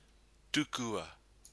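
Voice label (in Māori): "tukua", Māori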